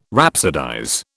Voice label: "rhapsodize", British